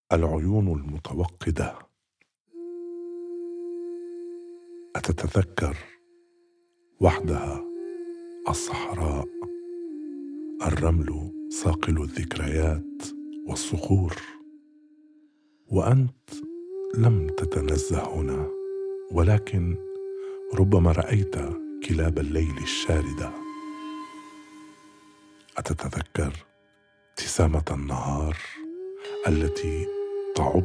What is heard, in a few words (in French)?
arabe; Les yeux vifs